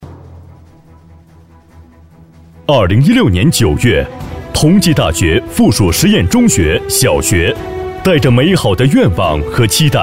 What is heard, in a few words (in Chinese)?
男 专题 学校专题大气磅礴